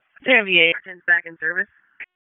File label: PS TAC, p25; wake